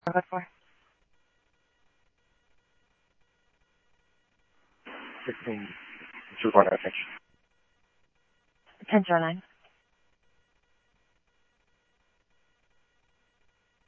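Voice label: Simulcast police department, scanner audio trunk; Mesa Simulcast; MESA PD 2 Centrl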